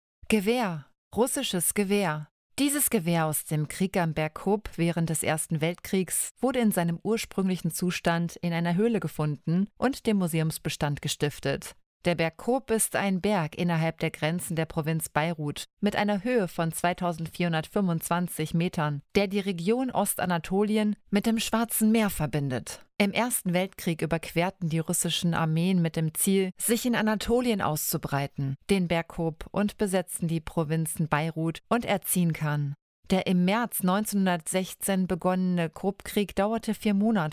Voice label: deutsch